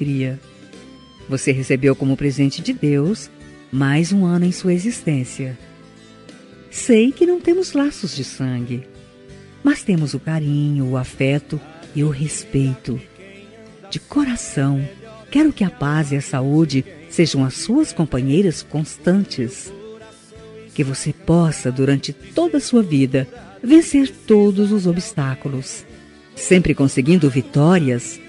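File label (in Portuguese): enteado fem, Telemensagens; Aniversário de Enteado – Voz Feminina; Telemensagens para todas as ocasiões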